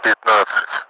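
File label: Russian speech, Mi-8MT; Messages fifteen; DCS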